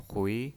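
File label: "hui", second tone, pinyin voice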